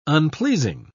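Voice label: "unpleasing", British English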